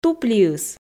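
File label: "туплиыс", Komi, female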